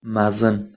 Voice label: "mazin" Kurdish Kurmanji